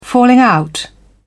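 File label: "falling out", English, British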